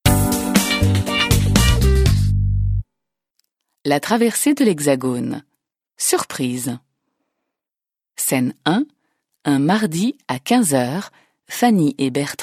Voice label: French